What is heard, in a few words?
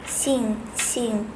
"xin" female